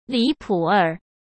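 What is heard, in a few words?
"离谱儿", Mandarin Chinese; chinese-flashcards; hsk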